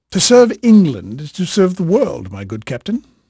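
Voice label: English male, voice; Pirates Of The Caribbean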